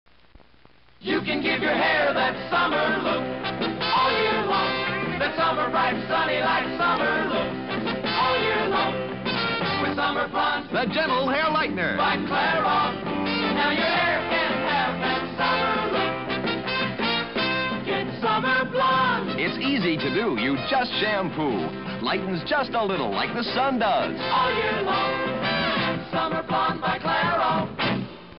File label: Summer Blonde TV Commercial; Clairol